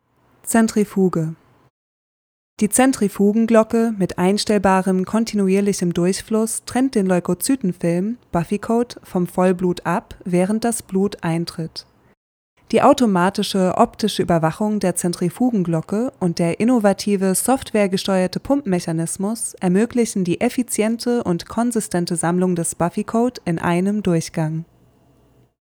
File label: German VO Commercial